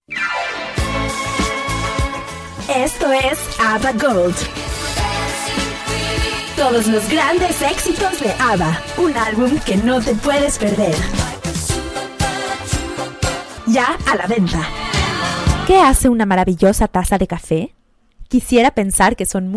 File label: Spanish LATAM